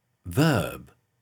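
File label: "verb", English pronunciation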